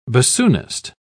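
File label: "bassoonist", British English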